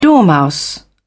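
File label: "dormouse", British English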